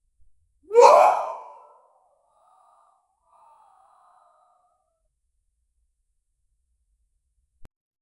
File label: quick wow sound as a surprise reaction